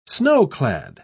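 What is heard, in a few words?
"snow-clad", British English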